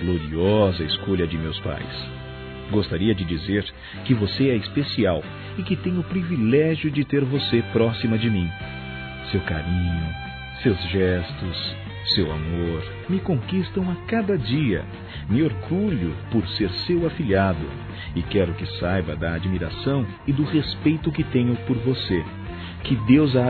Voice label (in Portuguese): MADRINHA (M)